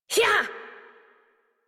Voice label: Horse Sprint Adult; Warframe; Duviri